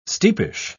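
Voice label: "steepish", British English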